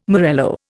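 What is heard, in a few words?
"morello", Indian English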